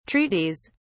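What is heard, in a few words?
"treaties", British English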